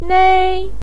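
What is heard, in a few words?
"nei", Mandarin Chinese first tone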